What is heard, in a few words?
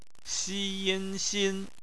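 "xin", Mandarin Chinese; phonetics; bpmf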